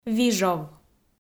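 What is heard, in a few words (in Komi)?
"вижов", Komi, female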